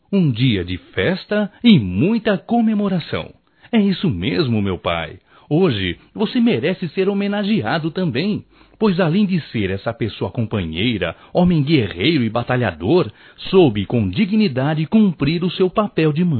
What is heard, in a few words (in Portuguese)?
Dia das Mães Pai como Mãe Masculino; Telemensagens 2017